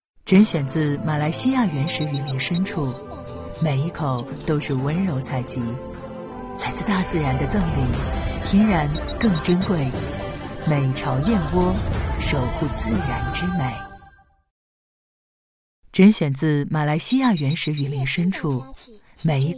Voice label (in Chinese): 女 广告 燕窝; 美巢燕窝